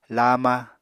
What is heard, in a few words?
"laama", Apatani